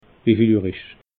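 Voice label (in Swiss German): "wie viel uhr isch", Alsatian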